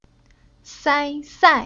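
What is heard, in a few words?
"Sai", Chinese syllable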